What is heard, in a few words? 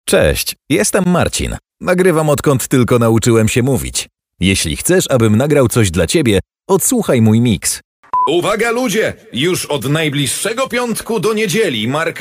polish male